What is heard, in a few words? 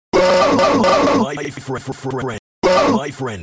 stutter